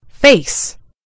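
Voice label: "face", British English; gcompris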